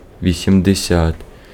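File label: Ukrainian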